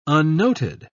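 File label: "unnoted", British English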